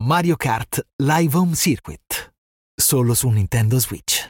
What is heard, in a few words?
italian voice video game commercial